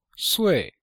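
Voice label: "sui4", Chinese; Cours de Chinois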